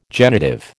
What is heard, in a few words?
"genitive", American